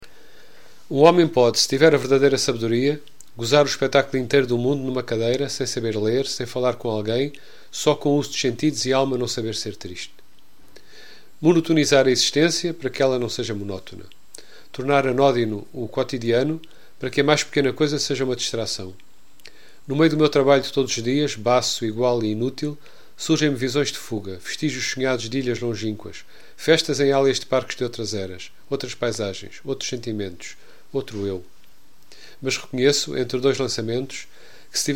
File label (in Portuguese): monotonia voz; F Pessoa; Desassossego